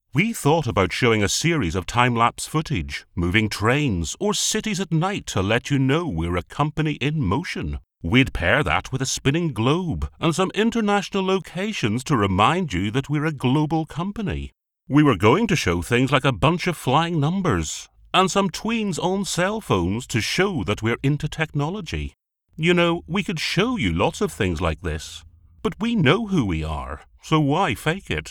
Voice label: corporate intro